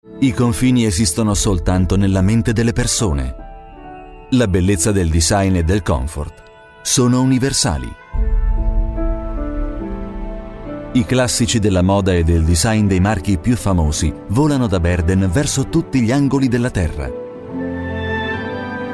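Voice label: it corporate, Italian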